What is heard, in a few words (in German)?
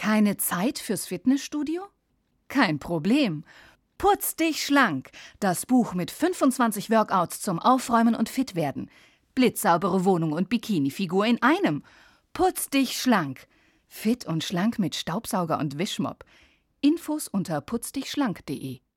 Werbung